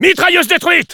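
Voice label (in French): auto destroyed sentry, French; Engineer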